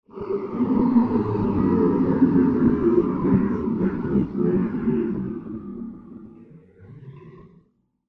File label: ghost mumble